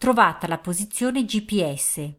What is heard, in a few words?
Gps Found, Italian female